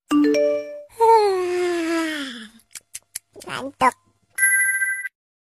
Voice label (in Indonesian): nada notifikasi wa ngantuk unik lucu banget